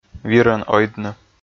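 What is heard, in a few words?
"вирӧн ойдны", Komi, male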